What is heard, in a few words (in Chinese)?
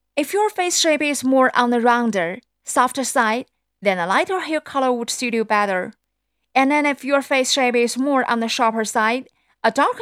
美式母语